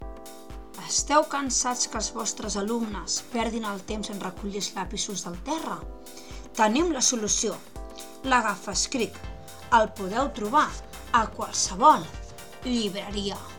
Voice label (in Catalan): Falca publicitària